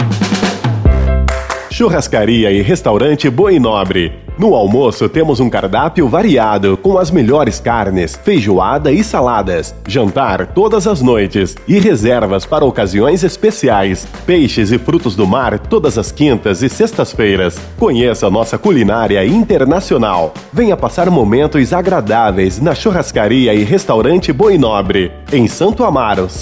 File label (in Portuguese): Spot Churrascaria e Restaurante